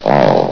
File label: "all", vox; Source Engine; bshift